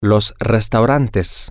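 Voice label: the restaurants, spanish, male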